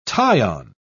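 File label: "tie-on", British English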